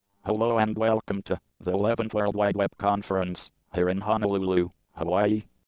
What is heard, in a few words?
fest vox male